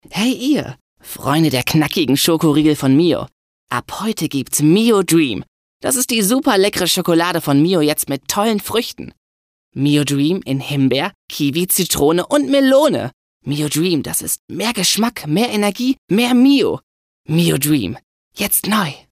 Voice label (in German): Werbung; Mio Dream